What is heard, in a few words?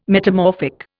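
"metamorphic", English, Indian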